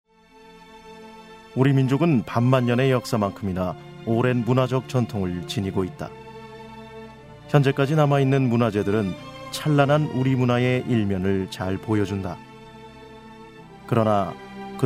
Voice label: korean male

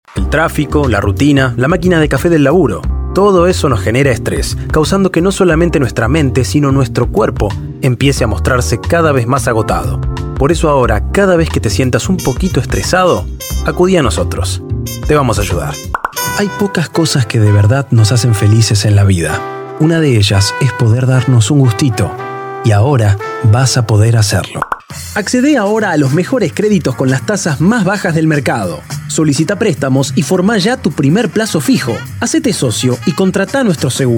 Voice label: spanish Argentina male

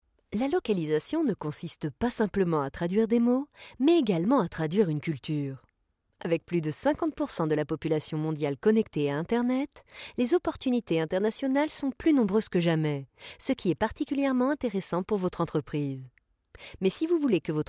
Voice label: french France female

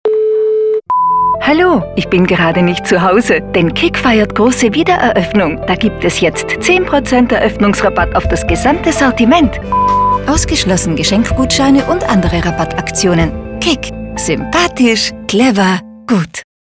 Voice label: german germany female

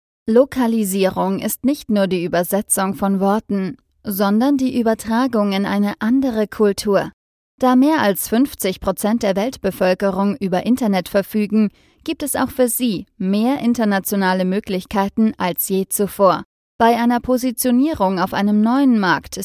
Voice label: german germany female

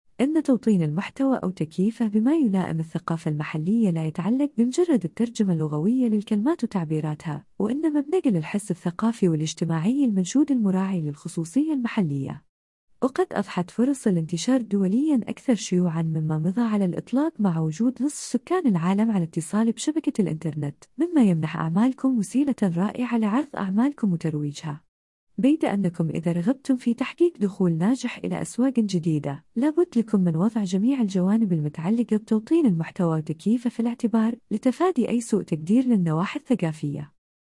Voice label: arabic uae female

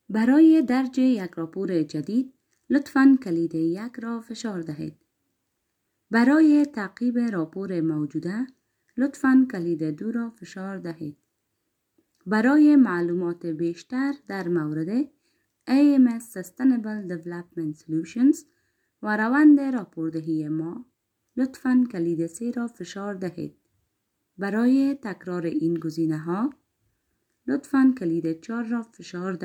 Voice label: dari female

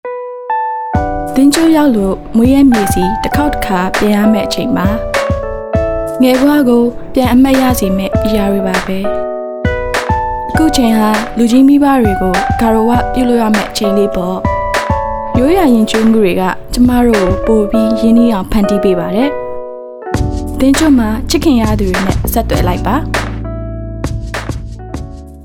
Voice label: burmese female

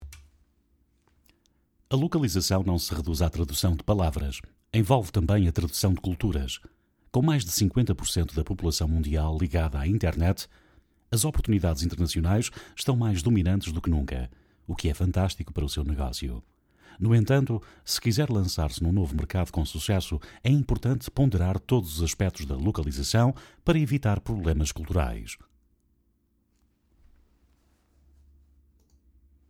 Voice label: portuguese europe male

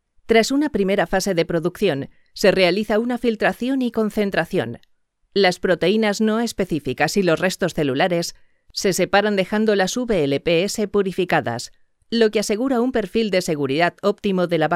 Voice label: spanish spain female

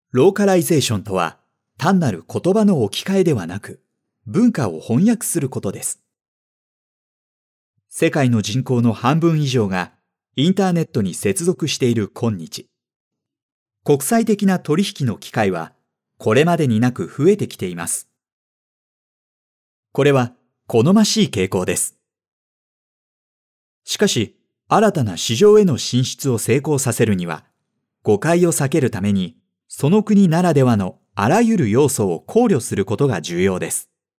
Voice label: japanese male

